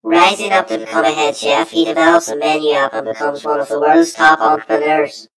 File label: story voiceover; yumpod